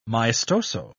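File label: "maestoso", British English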